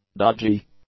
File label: "dodgy", English; adjectives